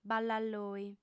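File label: "ballalloi", female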